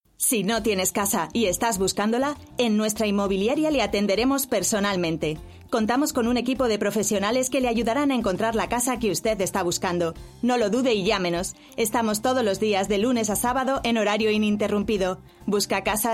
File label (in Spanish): Inmobiliaria, locuciones voice over